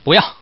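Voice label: Man, "don't want"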